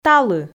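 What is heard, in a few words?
"талы", Komi, female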